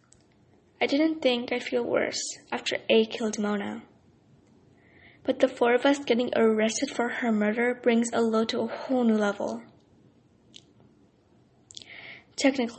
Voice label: Youth